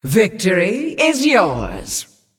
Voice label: Female Demon Concede

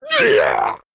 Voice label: Male Murloc Death

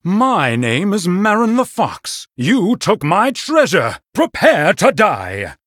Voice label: Male Human Threaten

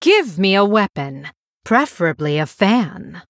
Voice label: Female BloodElf ERROR NEED WEAPON

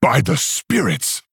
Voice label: Male Orc, "Wow"

